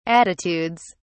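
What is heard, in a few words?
"attitudes", English, British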